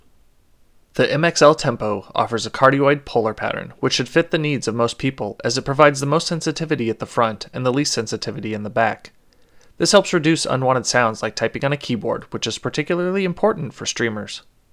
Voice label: MXL Tempo